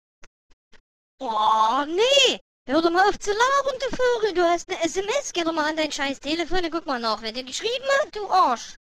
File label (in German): sachsen sms du arsch